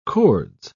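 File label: "cords", British English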